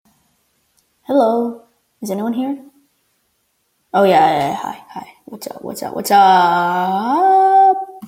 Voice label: weird hi